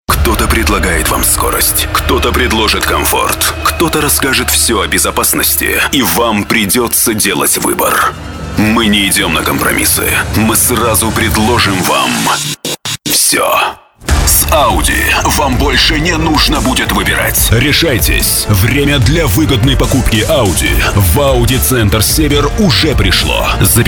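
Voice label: Russian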